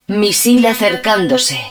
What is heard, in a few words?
Incoming Missile, Spanish